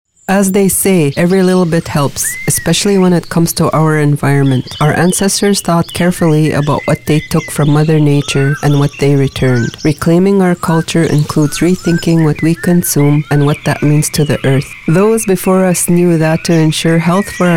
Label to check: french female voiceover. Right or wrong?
Wrong